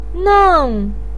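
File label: "neng", Mandarin Chinese fourth tone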